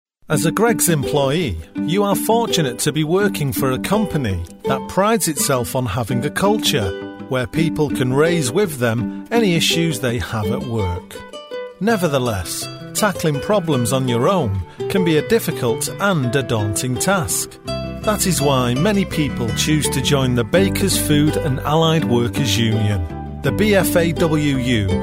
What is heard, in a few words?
corporate read, Natural Manchester Voice; greggs